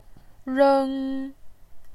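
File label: "reng", Mandarin Chinese, first tone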